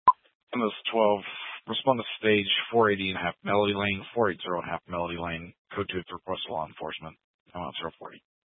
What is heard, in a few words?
Fire Department Dispatch; Grand Junction